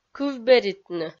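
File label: "кывберитны" Komi, female; kpv audio dict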